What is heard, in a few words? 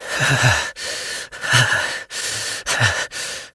Operator Voice